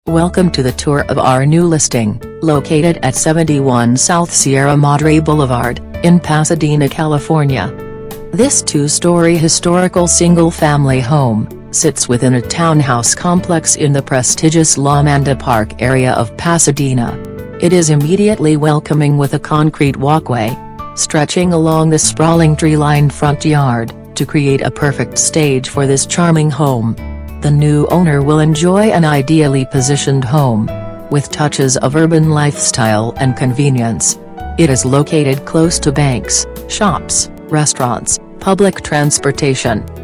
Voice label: voiceover